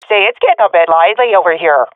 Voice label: attacking, swat fem; vo; Counter Strike Model Pack; CS:GO